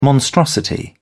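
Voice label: "monstrosity", British English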